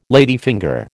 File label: "ladyfinger", American